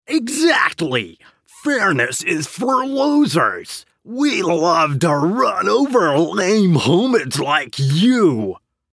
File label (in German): Eng US Werbung Rau